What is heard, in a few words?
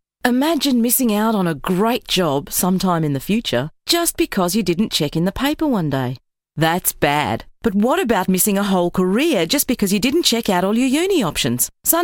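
voice over; Notre Dame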